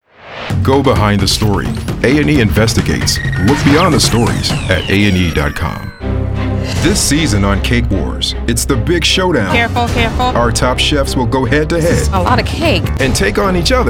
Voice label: PROMOS